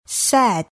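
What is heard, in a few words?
"sad", English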